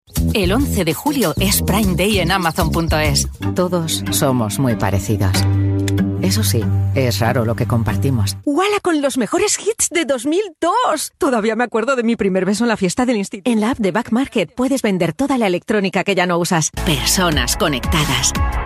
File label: castilian female commercial, Authoritative Corporate Spanish Voice